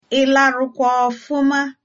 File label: good morning, igbo